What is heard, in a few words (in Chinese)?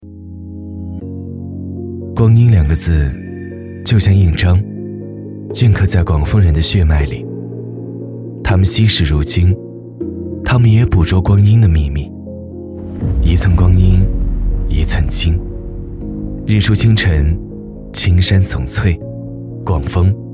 男, 走心旁白; 饶有丰味